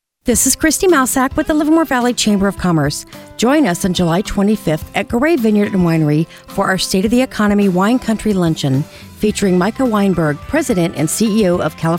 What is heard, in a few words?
commercial; KKIQ; July Luncheon